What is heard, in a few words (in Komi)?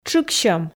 "тшыксьӧм", Komi